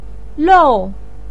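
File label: "lou", Mandarin pinyin, tone 4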